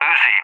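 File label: "Uzi", English, UK; CallSign; DCS; Allied Flight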